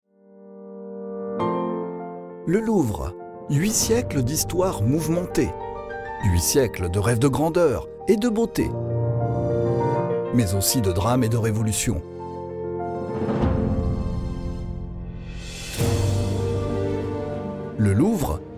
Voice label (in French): reportage; Démo Reportage; le louvre